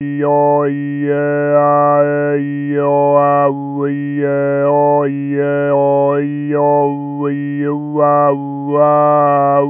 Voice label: initiator babble vowel Space